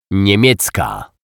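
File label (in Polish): "niemiecka", Polish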